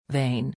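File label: "vein", English; 静脈、血管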